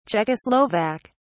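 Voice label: "czechoslovak", British English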